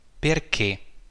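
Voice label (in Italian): "perché", Italian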